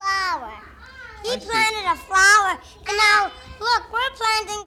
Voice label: children at school talking about various; Walden school